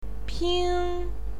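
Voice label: "ping", Mandarin Chinese, first tone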